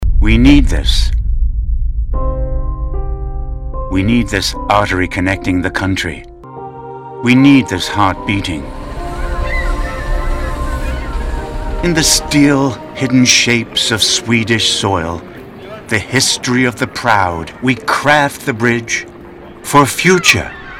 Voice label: Documentary